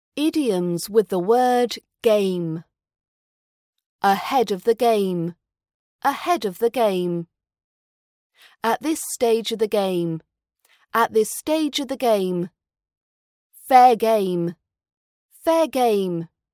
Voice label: idioms with the word game RP British accent pronunciation practice